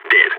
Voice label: enemy down, balkan; vo